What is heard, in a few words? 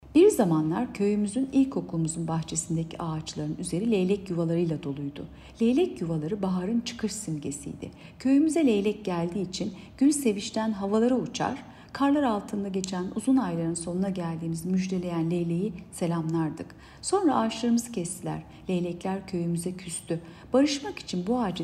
turkce